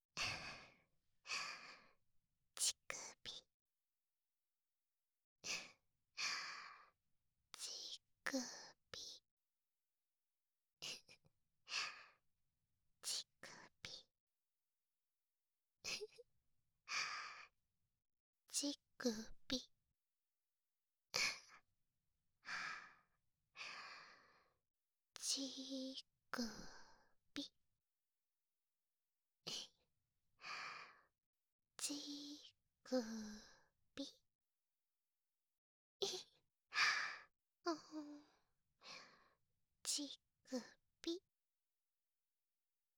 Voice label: 囁き淫語連呼; SEあり

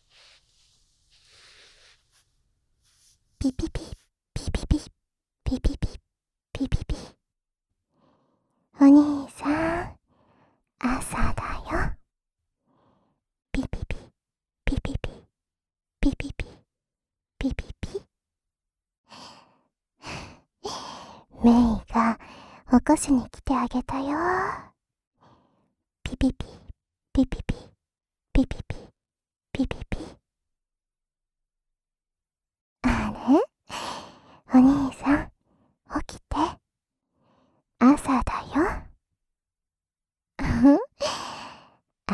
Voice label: かわいい女子高生による目覚まし音声; アワビくちゅ無し版

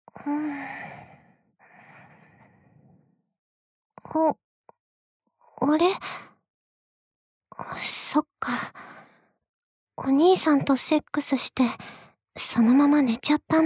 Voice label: ボイスオンリー, ASMR; 一線を超えた次の朝…さよなら神様

